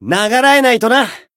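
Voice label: heal, Japanese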